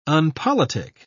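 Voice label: "unpolitic", British English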